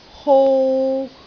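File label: "hou3", Cantonese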